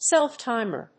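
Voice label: "self-timer", English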